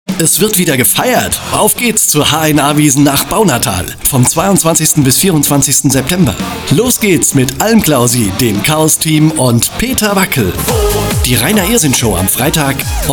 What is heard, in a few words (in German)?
Wiesn Funkspot; HNA